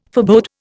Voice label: "forebode", Indian